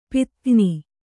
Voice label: "ಪದಗಾಣ್", Kannada

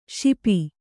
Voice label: "ಶಪ್ಪ" Kannada

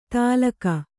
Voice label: "ತಾಲಕ", kannada

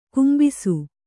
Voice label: "ಕುಂಭಿಸು", Kannada

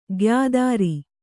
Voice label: "ಗಾಯತ್ರಿ", Kannada

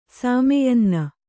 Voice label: "ಸಾಮೆಯನ್ನ", Kannada

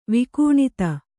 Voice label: "ವಿಕೂಣಿತ", Kannada

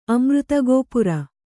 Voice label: "ಅಮೃತಗೋಪುರ", Kannada

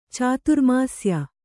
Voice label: "ಚಾತುರ್ಮಾಸ್ಯ", Kannada; cāturmāsya; noun

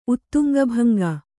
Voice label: "ಉತ್ತುಂಗಭಂಗ", kannada

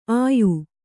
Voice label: "ಆಯು", kannada

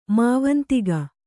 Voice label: "ಮಾವಂತಿಗ", Kannada

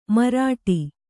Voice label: "ಮಾರಾಟ", Kannada

